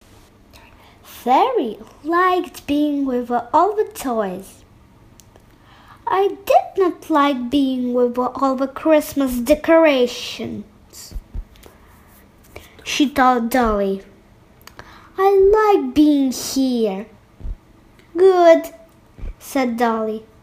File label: eng child